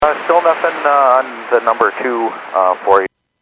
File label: Aviation 121.5 Emergency